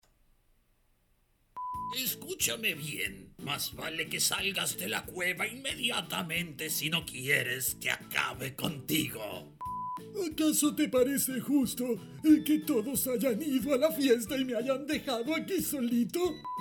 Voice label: CHARACTERS ANIMATION